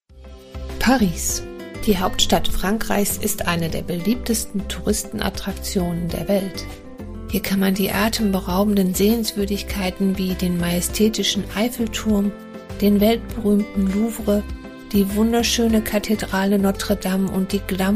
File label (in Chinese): 德语女声